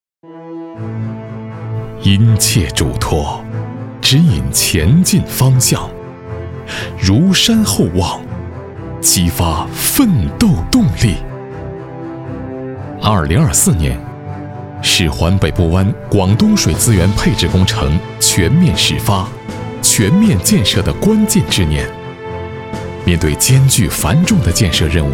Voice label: 大气力度专题; 携手奋进 大气力度专题; 大气力度宣传片

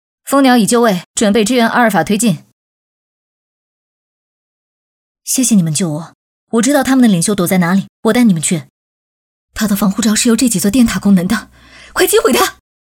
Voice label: 游戏女

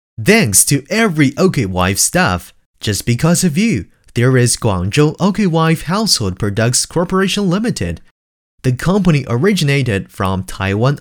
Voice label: 企业宣传英文

